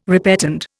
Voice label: "repetend", Indian